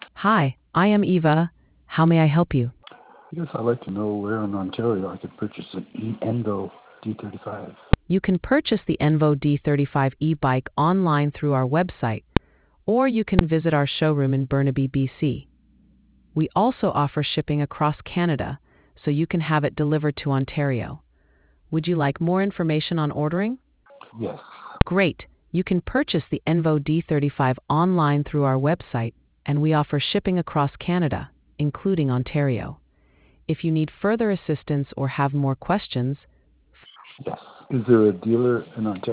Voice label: conversation